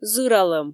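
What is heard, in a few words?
"зыралӧм", Komi, female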